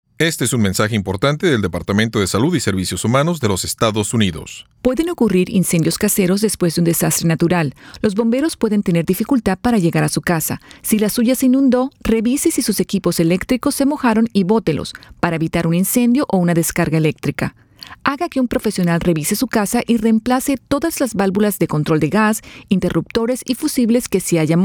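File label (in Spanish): Spanish PSA; CDC; Wet Appliances; disasters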